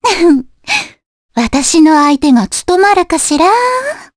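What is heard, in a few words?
Vox Japanese; Cassandra; kingsraid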